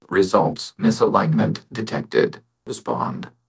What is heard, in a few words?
upset; cybro; fertig classic games